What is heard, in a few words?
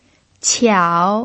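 "qiao", third tone, Mandarin Chinese pinyin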